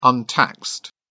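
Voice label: "untaxed", British English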